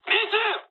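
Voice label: Japanese bomb success mood high; War Thunder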